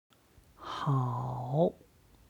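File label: "hao", Chinese; 好; 良い; HSK Level One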